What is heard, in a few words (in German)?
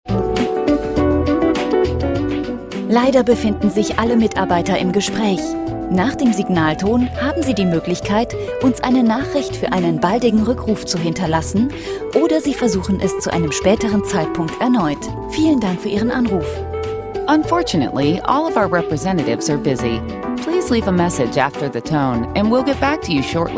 warteschleife ende deutsch englisch; warteschleife ende ansagen